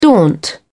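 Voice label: "daunt", English, British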